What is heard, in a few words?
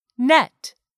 "net", English; 3000 words